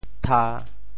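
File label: "tha1", Teochew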